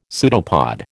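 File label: "pseudopod", American English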